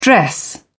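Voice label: "dress down", British English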